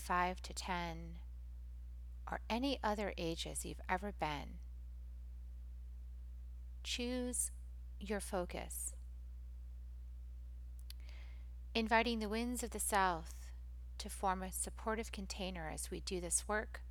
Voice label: Clear Shadow Bring Light To Inner Children Meditation